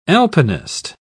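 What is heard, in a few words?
"alpinist", British English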